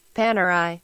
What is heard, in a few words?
"Panerai" Pronunciation